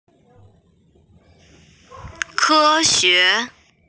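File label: "ke xue" Chinese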